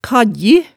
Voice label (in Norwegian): "kaggji", hemsedalsmaalet tale